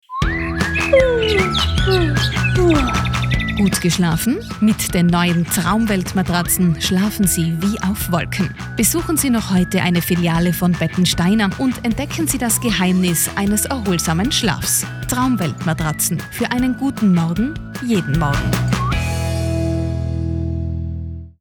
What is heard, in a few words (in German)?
Werbespot; Traumwelt